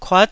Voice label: "kuat9", Taiwanese syllable

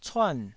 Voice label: "cuan4", Taiwanese syllable

